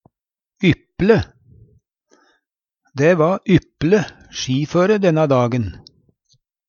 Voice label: ypple, Numedalsmål; Dæ va ypp'le skiføre denna dagen.; Ordklasse: Adjektiv

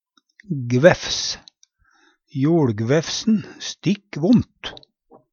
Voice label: gvæfs, Numedalsmål; JoLgvæfsen stikk vont.

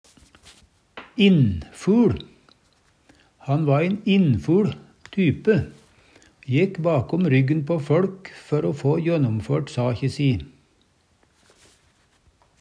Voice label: innfuL, Numedalsmål

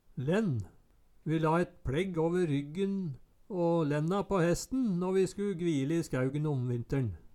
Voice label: lenn, Numedalsmål; Ordet er berre nytt i eintal.

